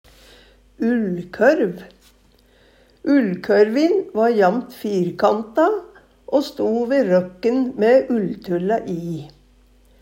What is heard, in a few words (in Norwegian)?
ullkørv, Numedalsmål